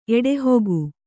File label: "ಎಡೆಹೊಗು", Kannada